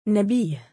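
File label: "nabiih", Arabic, male; given name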